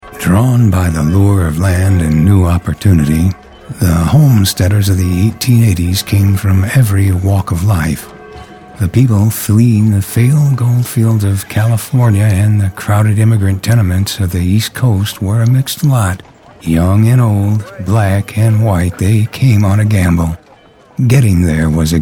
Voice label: Narrative